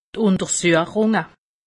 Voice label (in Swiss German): "untersuchung", alsace; medecine